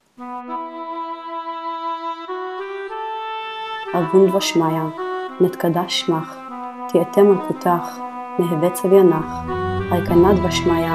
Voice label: Female Meditational, Lords Prayer Narrated, Aramaic